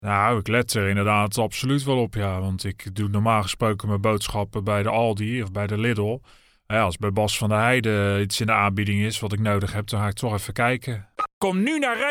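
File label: ACC M ROTTERDAMS, Rotterdam accent, male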